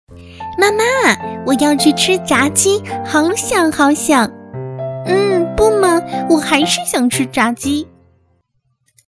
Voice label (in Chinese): 女8-模仿-小女孩小萝莉